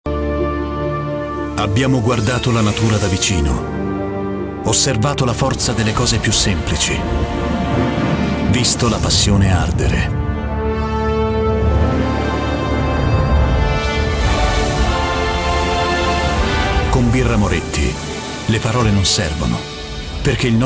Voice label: male Italian tv comercial; ideasonora